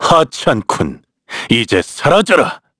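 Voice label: Vox Skill, Korean; Shakmeh; kings raid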